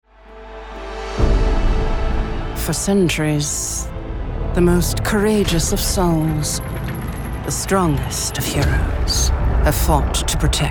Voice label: British Evil Queen Medieval; Medieval Times; GOT; Cersei Lannister